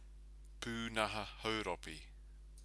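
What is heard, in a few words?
"punaha hauropi", Māori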